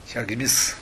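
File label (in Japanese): "yagimisu", 南山形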